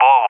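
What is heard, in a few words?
"Boar", English; Allied Flight; CallSign; DCS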